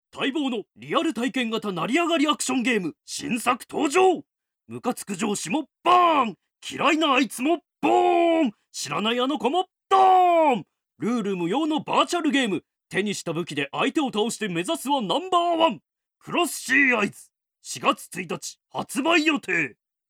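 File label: male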